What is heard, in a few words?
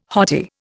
"hottie", Indian